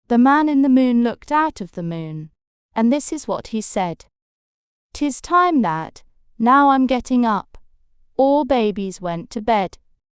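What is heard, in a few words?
Bedtime female British, classic rhymes; Man in the Moon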